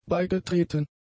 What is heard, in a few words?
"beigetreten", German; adjectives